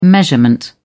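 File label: "measurement", British English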